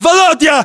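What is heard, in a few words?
"volodya", battlechatter, Russian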